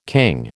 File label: "king", American